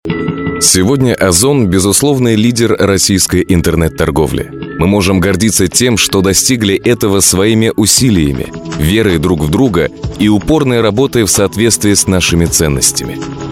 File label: rus